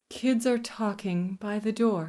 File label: bored